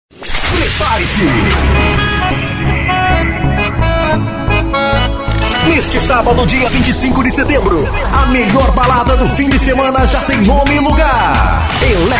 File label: spot